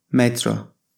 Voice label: "metro", Turkish